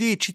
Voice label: “deci”, Gravona (sud)

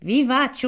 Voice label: "vi facciu", nord et sud; je vous fais

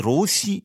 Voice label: “russi”, Rocca (sud)

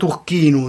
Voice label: “turchinu”, Gravona (sud)

